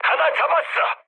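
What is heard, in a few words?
Korean player killed enemy mood med, speech; War Thunder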